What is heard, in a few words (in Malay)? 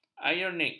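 "ironik", Malay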